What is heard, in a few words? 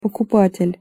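"pokupatel", Russian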